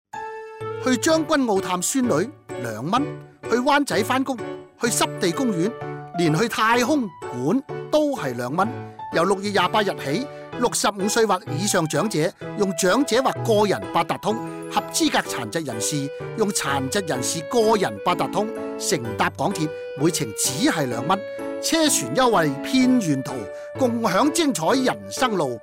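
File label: Cantonese Radio; 政府长者及合资格残疾人士公共交通票价优惠计划 (二元优惠计划); fare concession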